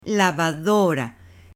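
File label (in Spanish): "LAVADORA", female; MUEBLES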